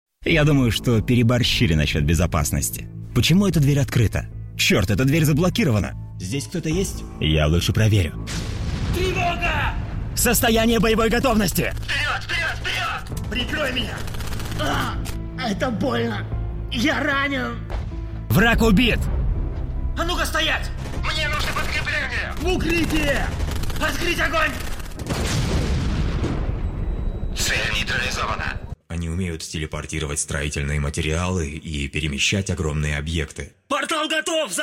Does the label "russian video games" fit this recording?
Yes